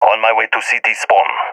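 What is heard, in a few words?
on my way enemy spawn, balkan; balkan epic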